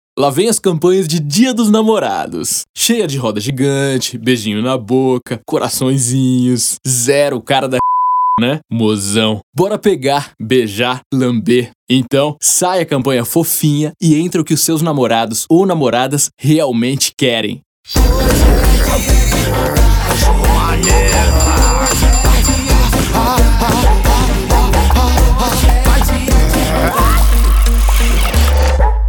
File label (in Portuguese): Caricato; Reserva Dia dos Namorados